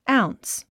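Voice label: "ounce", English word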